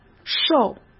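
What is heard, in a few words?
"瘦", Mandarin Chinese; chinese flashcards; hsk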